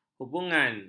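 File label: "hubungan", Malay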